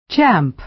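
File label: "champ", American English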